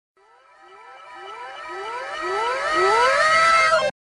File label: wow anime reversed